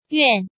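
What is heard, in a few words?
"Yuàn", Chinese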